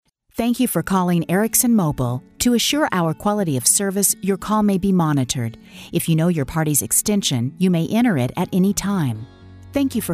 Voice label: Phone